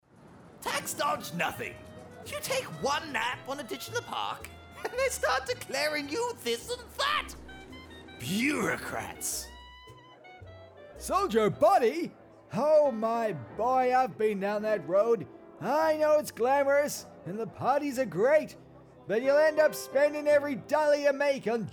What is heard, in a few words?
Character